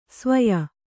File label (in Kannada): "ಸ್ವಯ", Kannada